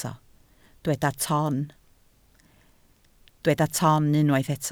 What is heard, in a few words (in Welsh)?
"ton" Welsh